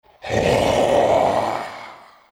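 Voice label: anger, chinese; dota2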